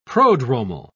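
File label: "prodromal", English, British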